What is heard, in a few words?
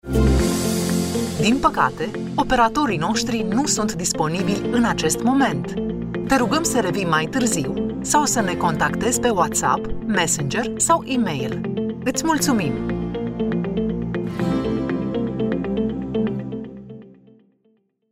ivr